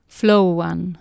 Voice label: "flowan", Anglo Saxon

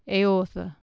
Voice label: "eorthe", Anglo Saxon

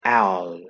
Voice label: "eall", Anglo Saxon

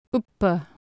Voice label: "uppe", Anglo Saxon

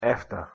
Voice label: "efter", Scots; after

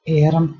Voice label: "earm", Anglo Saxon

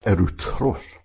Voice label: "eruthros", Ancient Greek

